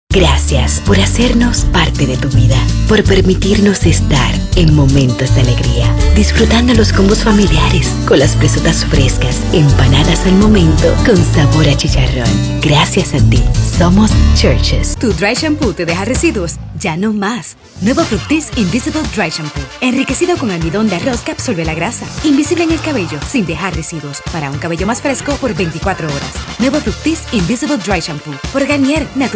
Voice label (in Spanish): Comerciales